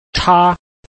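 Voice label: "cha", Chinese, tone 1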